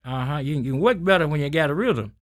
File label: SPOKEN, Blues Soul, TALK